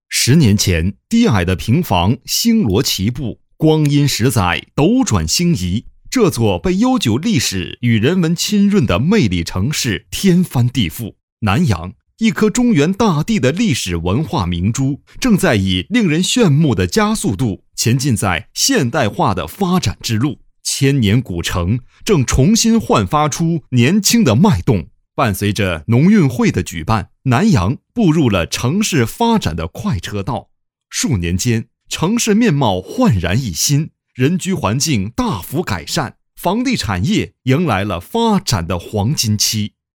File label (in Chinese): 男 地产公司宣传原声